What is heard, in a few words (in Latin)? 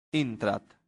"intrat", latin; santillana